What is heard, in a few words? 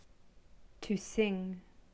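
"To sing", English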